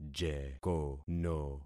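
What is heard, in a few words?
"jekono", concatenated syllables; Affixing experiment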